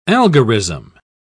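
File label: "algorism", British English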